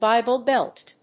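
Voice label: "Bible Belt", English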